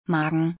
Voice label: Stomach in German